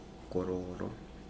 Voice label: white, Mokilese